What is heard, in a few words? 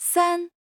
Chinese Number